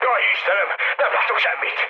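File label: Hungarian searchlite mood med, speech; War Thunder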